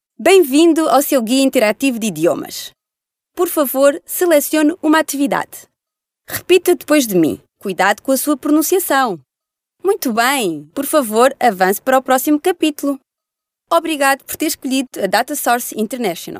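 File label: Portuguese female corporate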